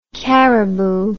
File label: "cariboo", English